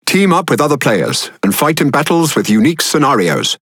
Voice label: Pirate Speech; World Of Warships